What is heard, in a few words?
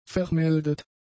"vermeldet", German; adjectives